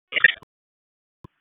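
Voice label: Police Dispatch; Wake Forest Police Disp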